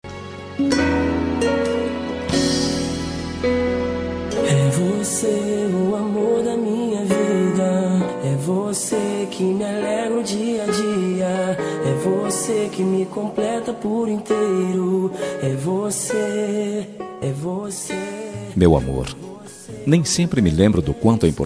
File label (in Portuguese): esposa, dia das mulheres para esposa voz masculina; linda